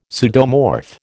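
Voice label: "pseudomorph", American English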